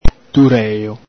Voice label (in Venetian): "durelo", Veneto